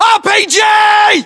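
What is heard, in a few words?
threat rpg, British; localized english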